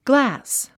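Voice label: "glass", English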